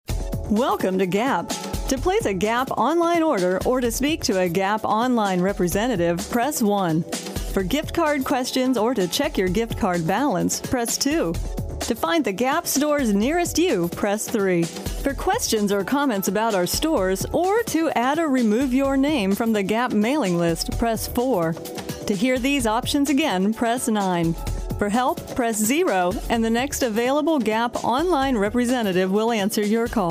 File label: Phone Hold IVR, Female